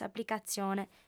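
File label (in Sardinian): "s'aplicatzione", female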